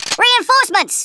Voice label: "COLLECT", Australian; Worms Armageddon